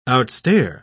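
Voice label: "outstare", English, British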